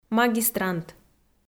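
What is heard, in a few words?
"магистрант" Komi, female; kpv audio dict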